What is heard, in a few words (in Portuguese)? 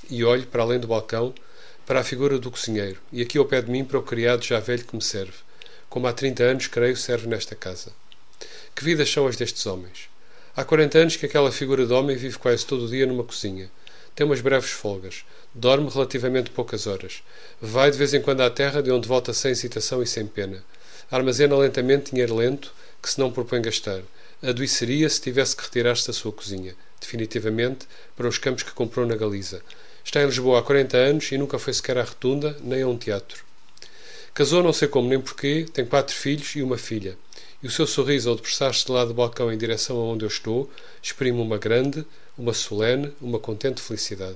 monotonia voz; F Pessoa; Desassossego